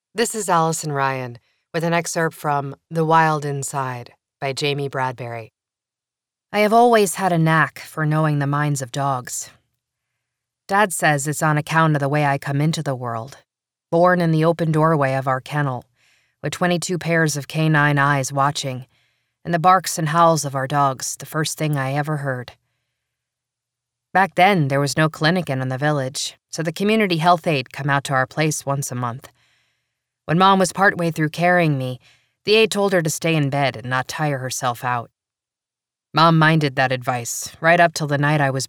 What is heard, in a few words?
1st Person Narrative Character